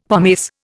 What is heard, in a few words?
"pomace", Indian; Dictionary